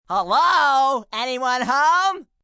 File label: Anyone Home, Attitude, male; Talking Sound Effects; Talking (Sound Effects:Voice); VoiceBox; Twistedtracks